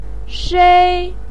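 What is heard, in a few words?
"shei", Mandarin Chinese syllable, first tone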